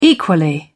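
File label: "equally", British English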